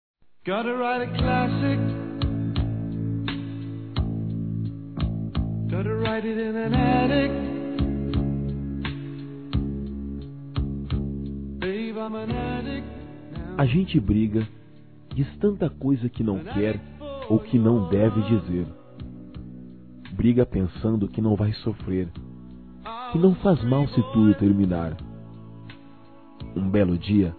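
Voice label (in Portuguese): RECONCILIAÇÃO Masculino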